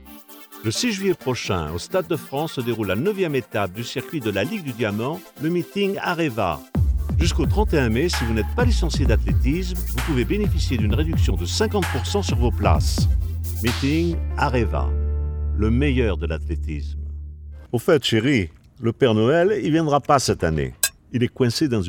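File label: French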